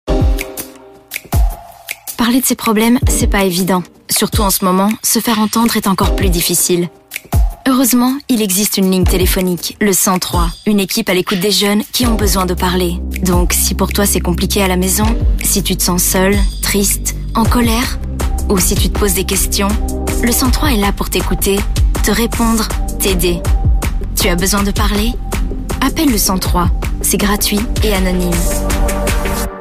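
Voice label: French, female, explainer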